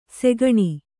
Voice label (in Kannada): "ಸೆಗಣಿ", Kannada